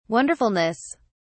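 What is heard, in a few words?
"wonderfulness", British English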